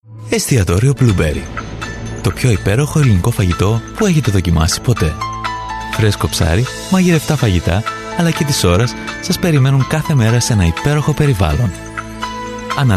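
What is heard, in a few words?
Gre, Greek; Male; 20s-40s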